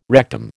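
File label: "rectum", American English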